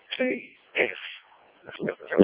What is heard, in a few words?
decoded speech